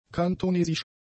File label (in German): "kantonesisch", German; adjectives